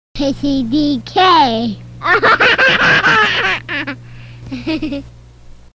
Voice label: Little Kid says with laugh